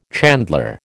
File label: "chandler", American